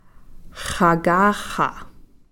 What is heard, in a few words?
"xakaxa", maternal aunt